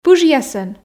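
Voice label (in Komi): "пыжъясӧн", female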